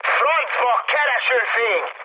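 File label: Hungarian searchlite mood high, speech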